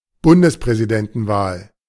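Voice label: "Bundespräsidentenwahl", German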